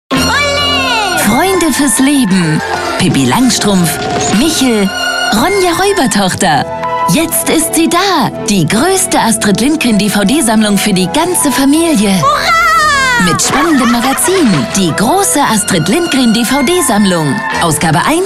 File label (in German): Werbung für Kinder